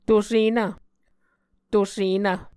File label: "tosenv", muscogee